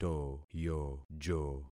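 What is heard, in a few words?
"toyojo", concatenated syllables; Affixing experiment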